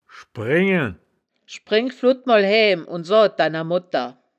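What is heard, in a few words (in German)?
"schbren gen", wittlicher woerterbuch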